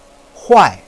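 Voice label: "huai4", Mandarin Chinese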